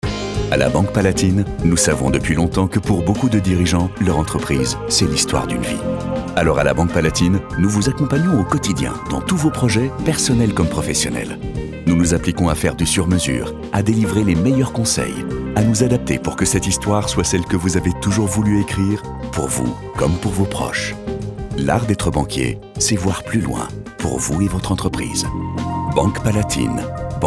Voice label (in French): RADIO; BANQUE PALATINE